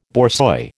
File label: "borzoi", American